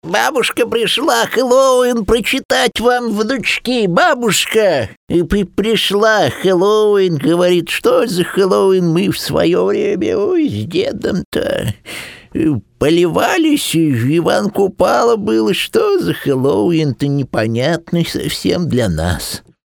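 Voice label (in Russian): babushka starushka, grandmother old woman voice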